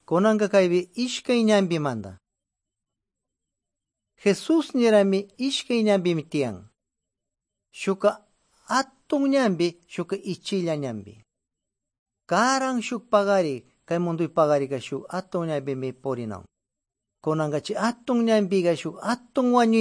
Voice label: good news quichua de pastaza